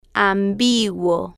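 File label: "ambiguo" Spanish, female, slow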